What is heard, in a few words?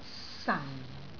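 "san4", Cantonese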